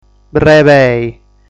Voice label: "Brebei", Sardu

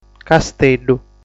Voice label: "Casteddu", Sardu

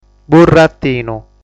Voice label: "Burratinu", sardu

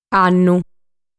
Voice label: "Annu", sardu

